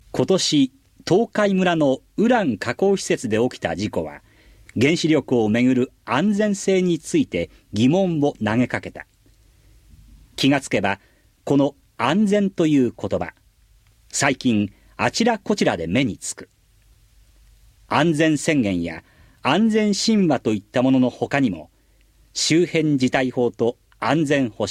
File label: Conversation